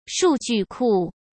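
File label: "数据库", Mandarin Chinese; hsk; chinese-flashcards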